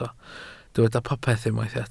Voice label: "popeth", Welsh